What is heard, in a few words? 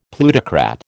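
"plutocrat", American English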